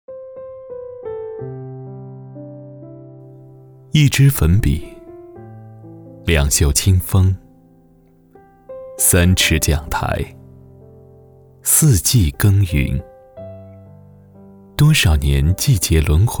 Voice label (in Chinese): 男, 旁白, 讲述, 情感; 致敬平凡的教师